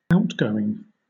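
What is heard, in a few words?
"outgoing", British English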